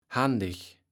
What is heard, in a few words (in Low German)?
"handig", kaevels platt